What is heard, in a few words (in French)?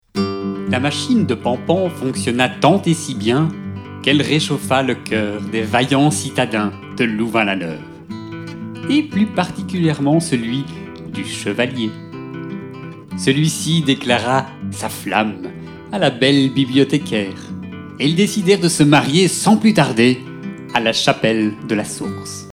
NARRATION